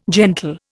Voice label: "gentle", Indian